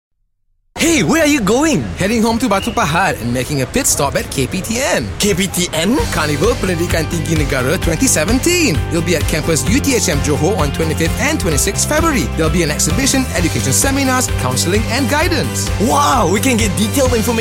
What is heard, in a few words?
ENG Casual Voice; KPTN